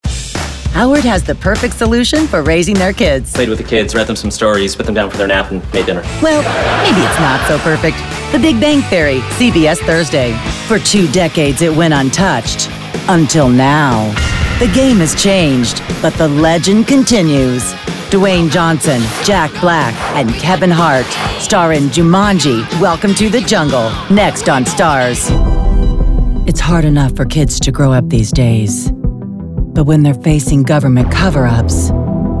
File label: Promo